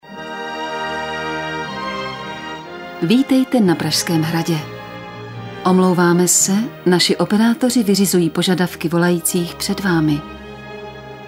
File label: F CZ mix